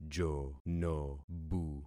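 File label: "jonobu", concatenated syllables; Affixing experiment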